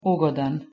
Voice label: "ugodan", Bosnian